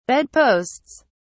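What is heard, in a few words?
"bedposts", English, British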